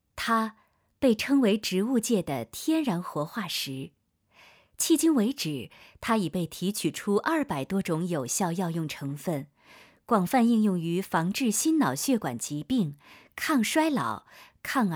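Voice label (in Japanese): CM（落ち着いた感じ）, 北京語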